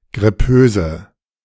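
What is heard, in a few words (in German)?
"grippöse", German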